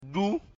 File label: "dun", mina